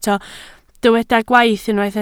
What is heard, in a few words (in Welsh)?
"gwaith", Welsh